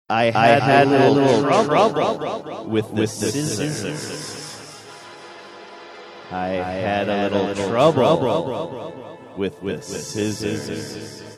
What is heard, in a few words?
saying i had a little trouble with scissors echo reverb